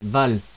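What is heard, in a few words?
"valse", French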